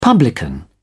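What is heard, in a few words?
"publican", British English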